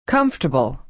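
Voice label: "comfortable", American English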